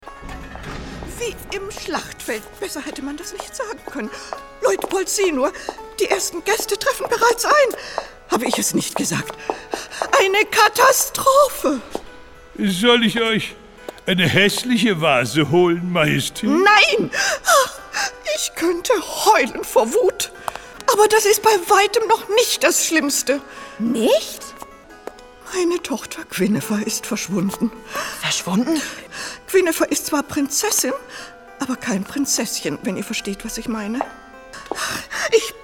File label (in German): kinderhoerspiel; playmos